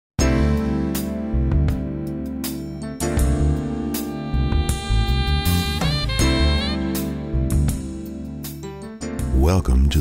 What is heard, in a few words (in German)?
radioansager; LOFT VOICES